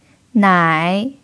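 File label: "nǎi", Mandarin Chinese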